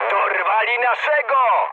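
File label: Polish aircraft destroyed mood high; War Thunder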